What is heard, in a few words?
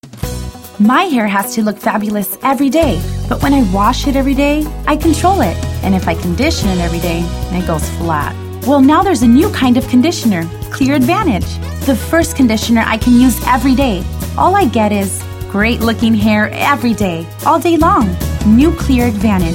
voiceover female